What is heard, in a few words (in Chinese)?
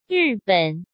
"日本", Chinese; Chinese Grammar Wiki